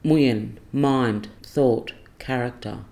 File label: "muyen" mind thought character